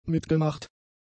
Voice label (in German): "mitgemacht", German; adjectives